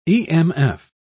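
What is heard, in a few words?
"emf", British English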